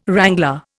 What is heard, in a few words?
"wrangler", Indian